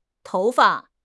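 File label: "tou2 fa5", Mandarin Chinese; HSK3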